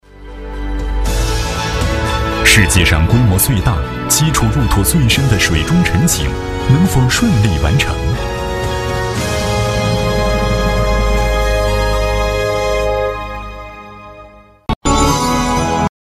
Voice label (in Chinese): 男, 片花, 科技频道; CCTV10科技频道